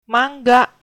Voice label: excuse me, sundanese